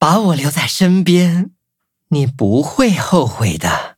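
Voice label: upgrade, Traditional Chinese; Cookie Run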